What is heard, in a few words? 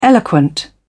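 "eloquent", British English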